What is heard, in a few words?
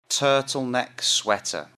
"turtleneck sweater", English; Outdoor Clothes